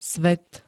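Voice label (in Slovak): "svet", Slovak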